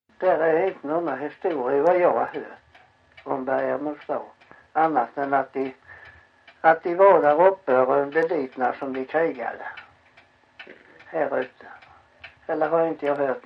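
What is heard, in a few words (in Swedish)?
Backaryd Blekinge, Svenska sagor dialekter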